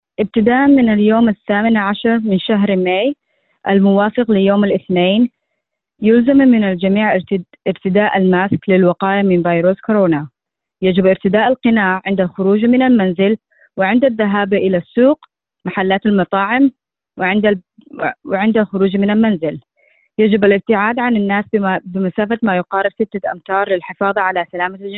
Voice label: arabic